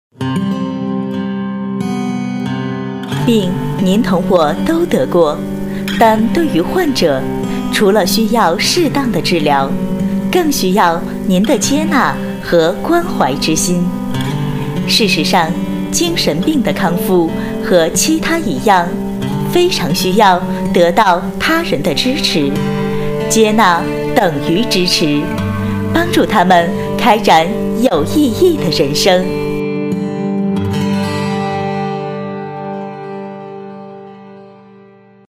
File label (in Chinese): 公益 女 温柔; 精神病公益